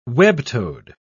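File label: "web-toed", British English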